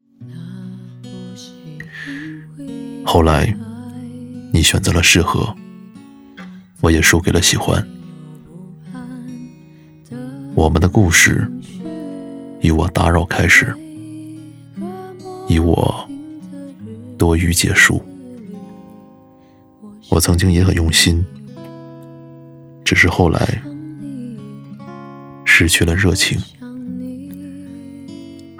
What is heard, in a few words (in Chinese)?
男 旁白